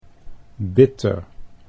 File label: "bitter", Dutch